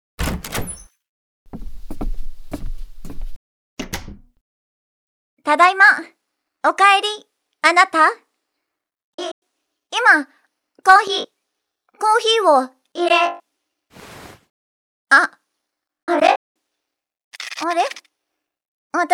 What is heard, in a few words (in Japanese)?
ドラマ, ASMR; ペケ子、幸せでした